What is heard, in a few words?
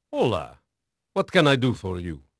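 Portuguese male, voice; Pirates Of The Caribbean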